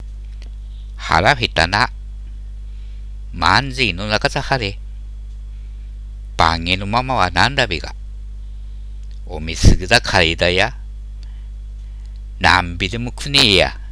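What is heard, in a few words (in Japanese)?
母と息子, 秋田弁; 学校から息子が帰ってきた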